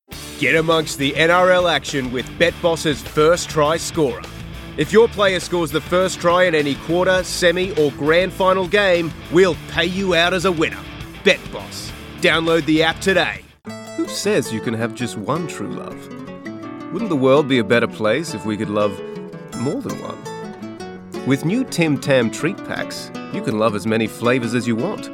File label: Commercial